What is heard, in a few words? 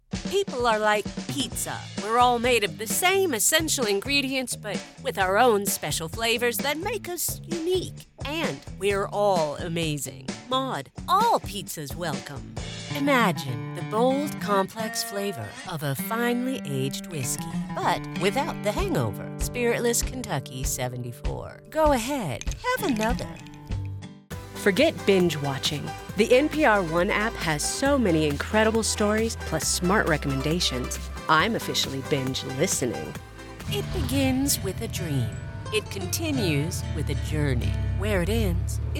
Commercial